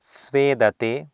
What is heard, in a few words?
"sveedate", Sanskrit